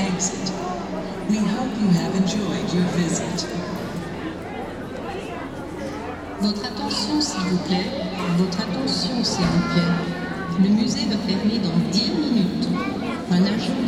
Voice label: Museum ambience closing PA announcement